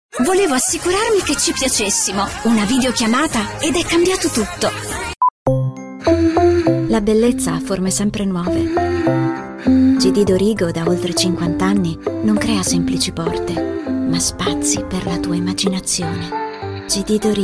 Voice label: italian commercial

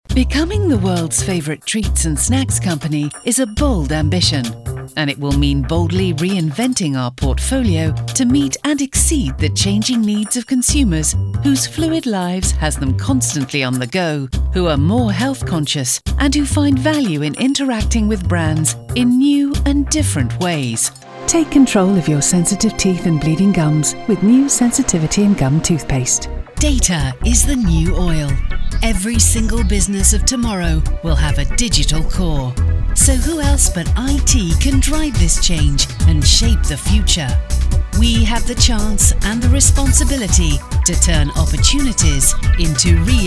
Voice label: english british commercial; dry; voice actors

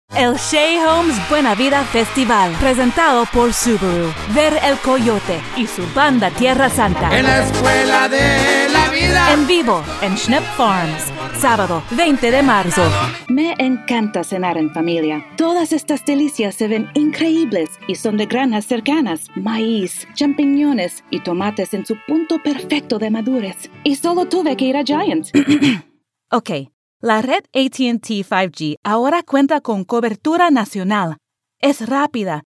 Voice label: spanish commercial; bi-lingual; United States; professional, friendly, relatable bi-lingual voiceover

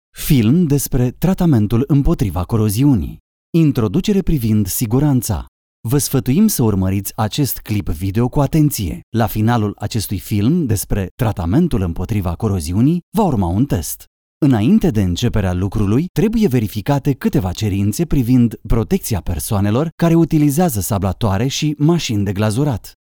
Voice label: romanian narration

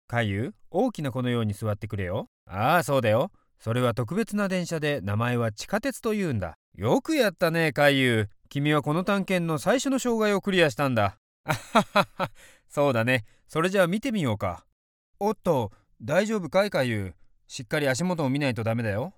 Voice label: japanese animation; acteur/artiste vocal japonais basé à New York

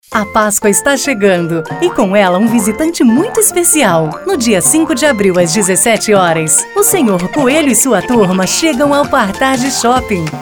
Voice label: portuguese brazilian promos

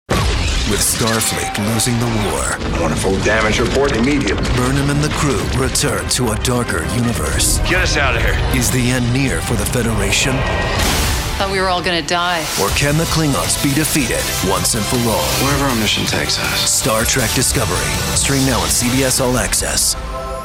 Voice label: english american promos; Everyman

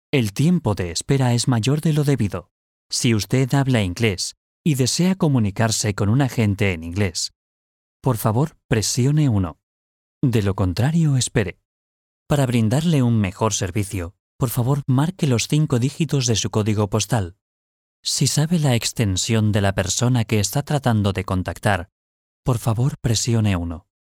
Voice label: spanish ivr

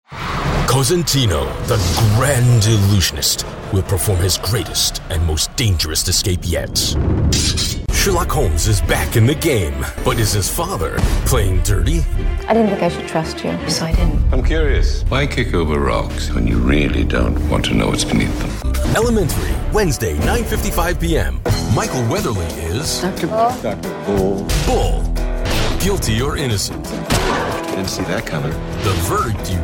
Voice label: english american promos

